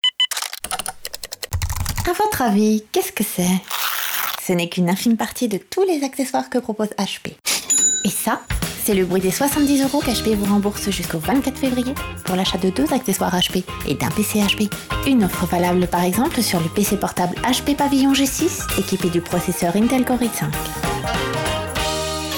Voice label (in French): FR Fem